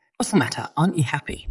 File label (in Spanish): Voice Acting es M